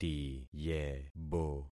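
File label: "tiyebo", concatenated syllables; Affixing experiment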